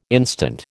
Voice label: "instant", American